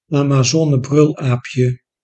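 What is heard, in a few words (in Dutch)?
"amazonebrulaapje", Dutch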